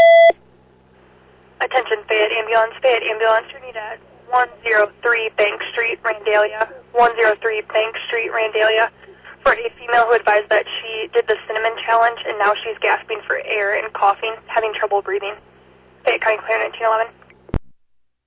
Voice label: Ambulance, dispatch